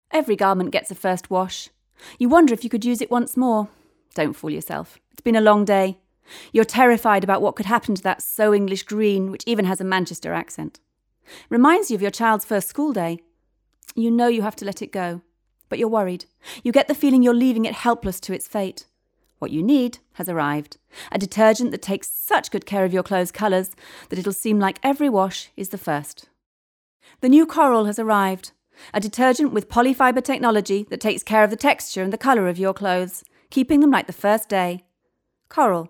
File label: British; Casting Impulse